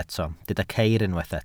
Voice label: "ceir", Welsh

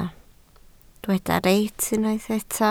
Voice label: "reit", Welsh

